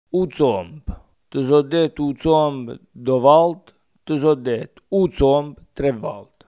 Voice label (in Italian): salto zompo, coratino